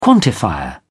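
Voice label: "quantifier", English, British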